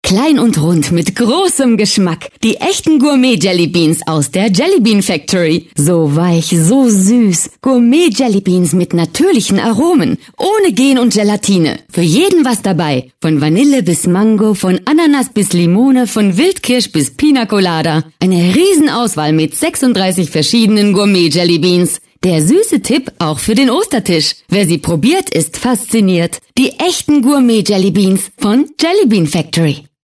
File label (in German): werbespot; gourmet jelly beans